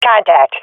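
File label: sees enemy, swat female; Source Model Packs; Counter Strike Model Pack; CS2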